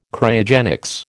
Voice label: "Cryogenics", American